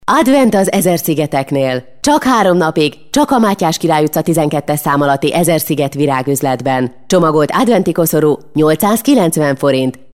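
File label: Hungarian, female, corporate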